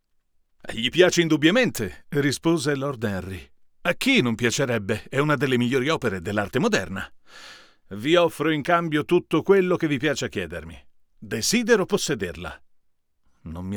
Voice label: NT2 RK47 VS U87AI Rode